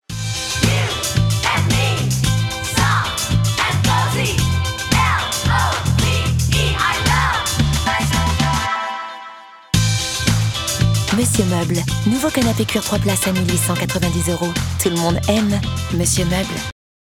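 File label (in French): nature, comédienne voix off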